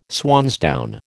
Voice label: "swansdown", American

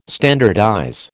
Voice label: "standardize", American

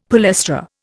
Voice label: "palestra", Indian; Dictionary

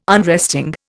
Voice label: "unresting", Indian

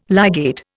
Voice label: "ligate", Indian

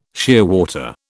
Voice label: "shearwater", British

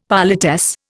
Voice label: "politesse", Indian; Dictionary

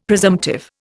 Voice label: "presumptive", Indian; Dictionary

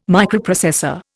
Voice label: "Microprocessor", Indian

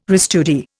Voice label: "restudy", Indian

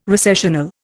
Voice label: "recessional", Indian

